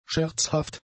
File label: "scherzhaft", German; adjectives